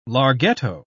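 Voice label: "larghetto", British English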